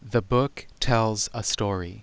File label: clear quiet